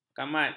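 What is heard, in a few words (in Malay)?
"kamat", Malay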